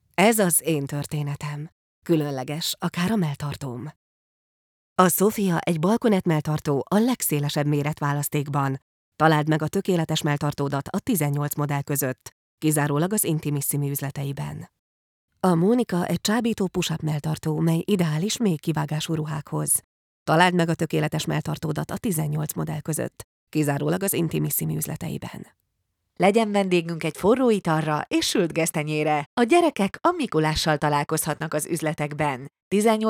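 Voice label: Hungarian, female, narration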